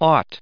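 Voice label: "ought", US English; ought /ɔ́:t; …すべきである、…するのが当然である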